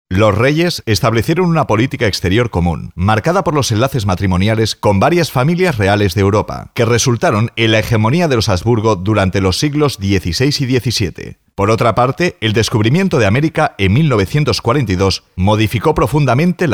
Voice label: locutor spanish voiceover